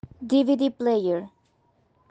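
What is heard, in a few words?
"DVD player", English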